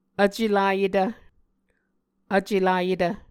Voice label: "vcelayetv", Muscogee

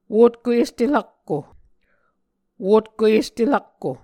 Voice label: "wotko este rakko", Muscogee

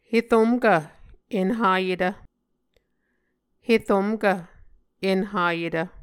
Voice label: "heromkv enhayetv", Muscogee

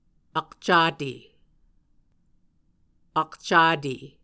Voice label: "akcate", Muscogee

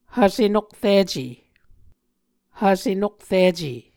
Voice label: "hvse nokrice", Muscogee

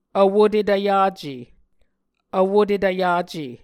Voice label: "vwotetv yace", Muscogee

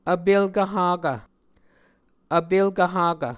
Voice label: "vpelkv hakv", muscogee

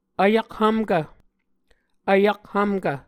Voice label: "vyakhvmkv", Muscogee

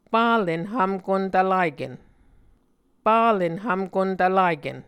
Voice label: "palen hvmkontvlaken", Muscogee

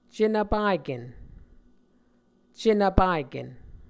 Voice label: "cenvpaken", Muscogee

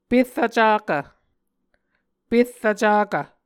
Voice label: "perr vcakv", Muscogee

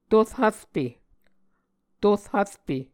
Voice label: "tor hvrpe", Muscogee

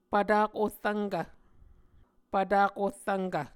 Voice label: "pvtak ohrvnkv", Muscogee

